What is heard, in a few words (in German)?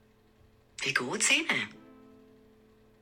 wie goots ihne, Basel Stadt, female